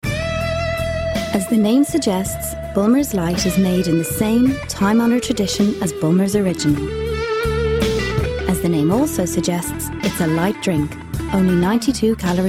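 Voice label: English, Commercial, Irish; GoLocalise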